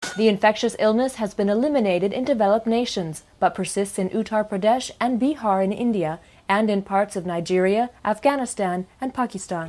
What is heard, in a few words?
news